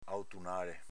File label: "autunale", dialetto veneto; s.m. crisantemo